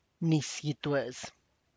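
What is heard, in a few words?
tlacochahuaya, Zapotec; chilacayota water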